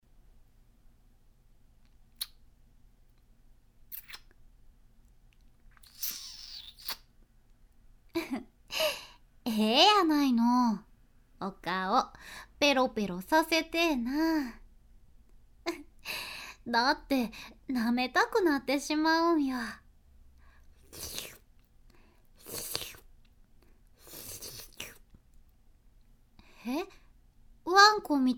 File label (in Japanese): ボイスのみ; 和風わん娘